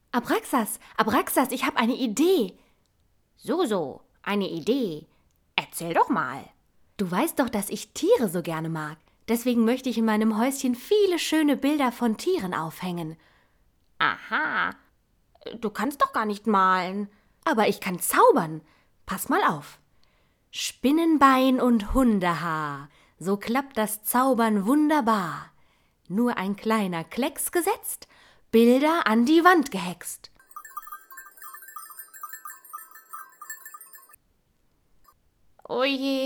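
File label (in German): Hörspiel alle Rollen; kleine hexe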